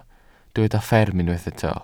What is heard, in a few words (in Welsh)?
"fferm" Welsh